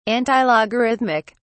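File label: "antilogarithmic", British English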